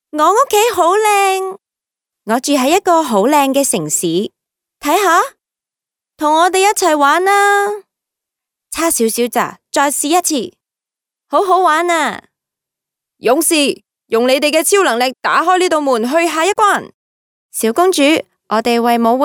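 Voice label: Cantonese female children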